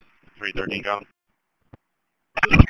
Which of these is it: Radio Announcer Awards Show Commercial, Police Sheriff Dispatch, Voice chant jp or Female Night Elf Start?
Police Sheriff Dispatch